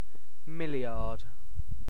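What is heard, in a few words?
"milliard", British English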